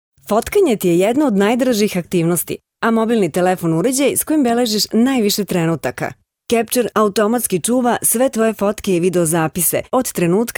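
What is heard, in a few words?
Serbian female voice over, upbeat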